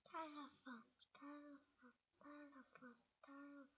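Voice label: "telephone", spoken word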